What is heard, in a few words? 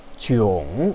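"jiong3", Mandarin Chinese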